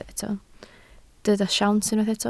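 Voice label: "siawns" Welsh, spoken word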